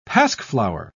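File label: "pasqueflower", British English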